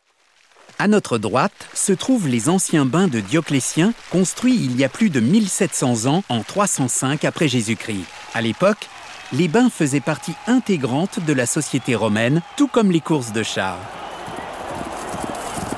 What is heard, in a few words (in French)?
audioguide; ROME; BAINS DE DIOCLETIEN